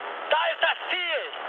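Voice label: German near waypoint mood high, speech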